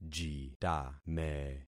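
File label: "jitame", concatenated syllables; Affixing experiment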